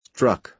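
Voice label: "struck", American English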